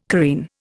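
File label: "careen", Indian English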